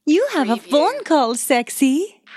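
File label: Female U Phone Call Sexy Accent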